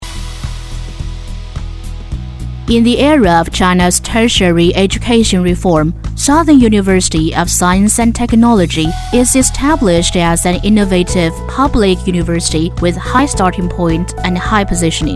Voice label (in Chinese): 英女 英语宣传; 南方科技大学